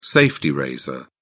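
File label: "safety razor", British English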